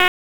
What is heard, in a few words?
Raleigh Police Northeast District Tac, p25